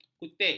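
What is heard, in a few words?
"kutik", Malay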